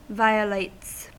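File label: "violates", US English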